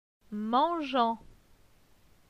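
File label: "Mangeant", French; phonetique; les consonnes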